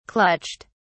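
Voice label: "clutched", English, British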